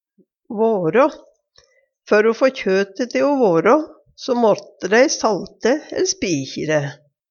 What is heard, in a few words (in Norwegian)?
vårå, Numedalsmål; Før o få kjøte te o vårå, måtte dei salte ell spikji dæ.; vare, halde se